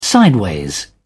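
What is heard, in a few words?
"sideways", British English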